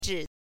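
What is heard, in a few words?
"zhi", Mandarin Chinese, neutral tone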